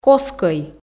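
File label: "коскӧй", Komi, female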